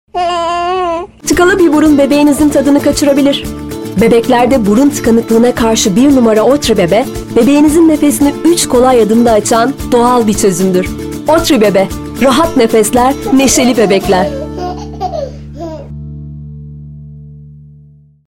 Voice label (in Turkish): reklam; otri